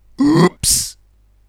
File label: oops Inwards